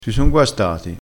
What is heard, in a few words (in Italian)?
"guastato", crespinese